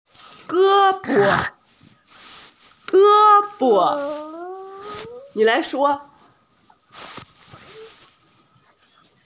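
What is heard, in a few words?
"arm", Mandarin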